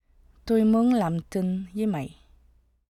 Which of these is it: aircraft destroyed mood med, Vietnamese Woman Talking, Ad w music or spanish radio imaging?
Vietnamese Woman Talking